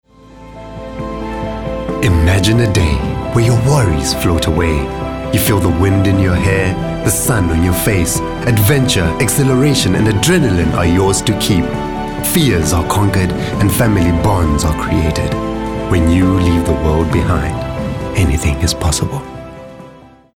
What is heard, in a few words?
Motivational